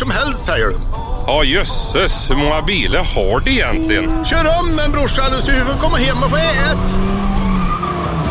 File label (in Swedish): Reklam för TJ transport - kul västgötska